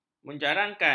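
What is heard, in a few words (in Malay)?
"menjarangkan", Malay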